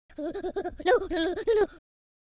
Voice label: scared, Drill Sergeant; speech

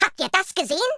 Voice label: excellent, German

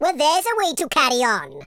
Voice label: "oi nutter", Welsh; speech

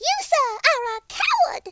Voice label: "coward", Southern Belle; speech

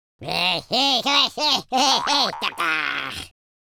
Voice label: taunt, Scotsman; speech

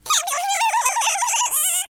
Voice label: scared, speech, Alien; Worms3D

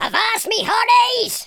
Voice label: bye bye, speech, Pirate

